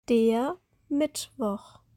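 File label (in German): "Der Mittwoch", German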